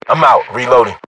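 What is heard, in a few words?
British inform reloading generic; battlechatter; localized english; uk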